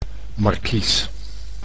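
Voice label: "markies", Dutch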